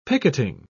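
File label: "picketing", British English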